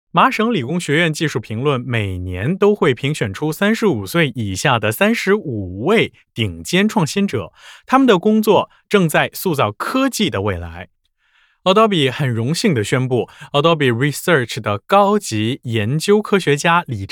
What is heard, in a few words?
Chinese, male